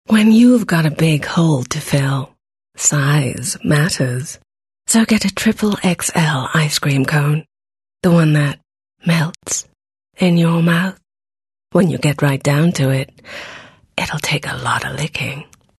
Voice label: English, Sexy